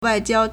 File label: "wai4jiao1", Chinese; 外交 wàijiāo; diplomacy; foreign affairs; Modern Chinese 现代汉语